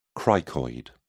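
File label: "cricoid", British English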